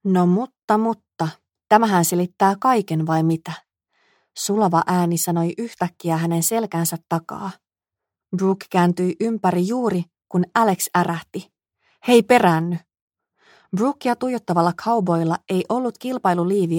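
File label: Finnish, Audiobook, female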